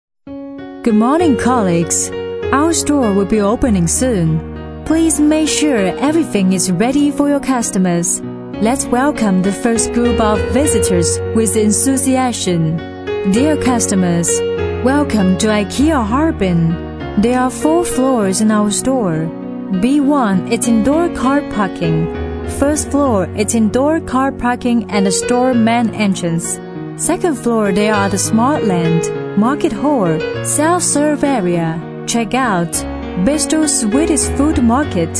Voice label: 家居 英文版 女; 宜家

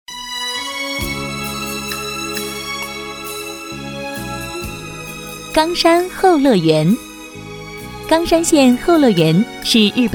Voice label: 课件旅游词【甜美 活泼】

